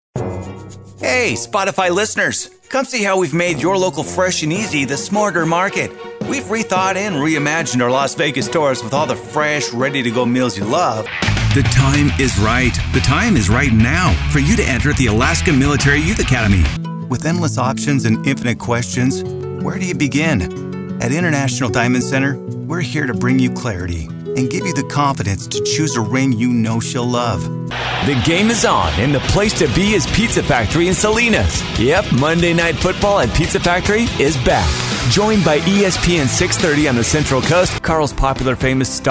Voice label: RADIO COMMERCIAL